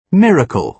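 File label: "miracle", British English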